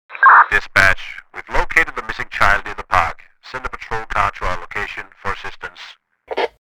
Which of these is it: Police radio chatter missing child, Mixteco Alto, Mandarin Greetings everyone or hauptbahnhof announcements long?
Police radio chatter missing child